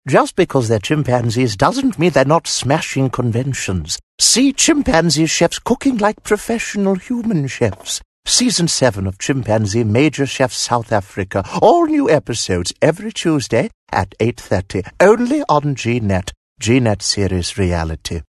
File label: English Eccentric